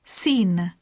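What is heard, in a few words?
"scene", English; poitiers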